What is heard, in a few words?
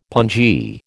"pongee", American